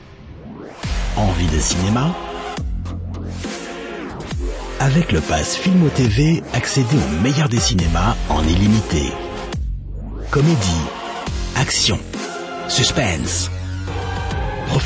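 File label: French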